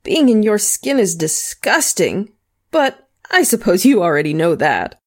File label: Female Constable combat taunt; SyrinScape; Missing Magistrate